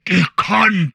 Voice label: humanoid portrait male; Stellaris; humanoids